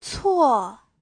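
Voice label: "cuò", Mandarin Chinese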